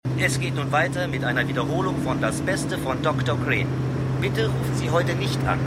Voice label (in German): Radiosprecher; Frasier